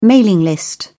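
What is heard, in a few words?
"mailing list", British English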